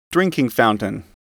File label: "drinking fountain", English